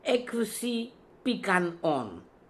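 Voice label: "Epsi Pikan On", pronunciations; Fourth Way; Beelzebub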